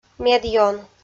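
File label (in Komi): "медъён", Komi, female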